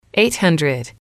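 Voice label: "eight hundred", English, female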